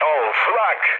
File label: damaged aa, mood low, speech tur; War Thunder